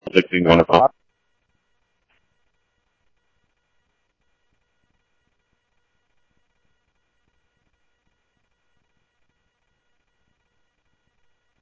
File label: Scottsdale Police, scanner audio